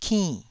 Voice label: "kinn4", taiwanese syllables

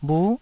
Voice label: "bhu9", taiwanese Syllables

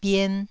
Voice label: "bien1", taiwanese syllable

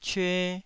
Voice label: "cyue2", Taiwanese syllable

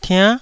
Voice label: "tiann9", Taiwanese

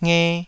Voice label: "nge2", taiwanese syllables

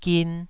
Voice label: "gin2", Taiwanese

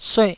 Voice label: "sueh4", taiwanese Syllables

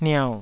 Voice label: "niau3", taiwanese

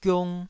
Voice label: "giung2", Taiwanese syllable

